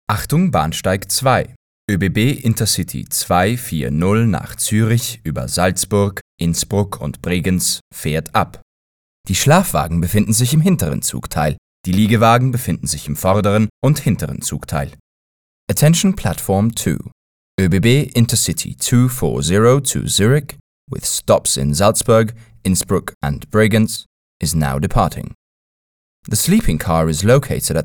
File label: Information deutsch englisch